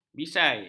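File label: "bisai", Malay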